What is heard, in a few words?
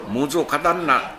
"mozo", minamiyamagata